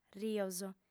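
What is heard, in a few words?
"rios", Sardinian, female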